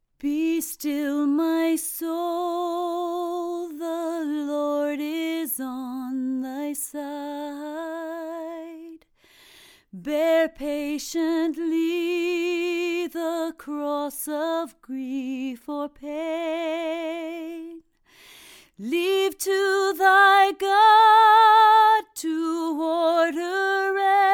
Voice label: female voice, Focusrite ISA110